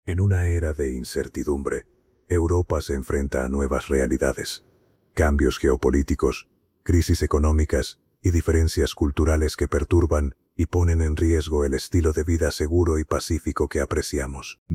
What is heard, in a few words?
Spanish voice over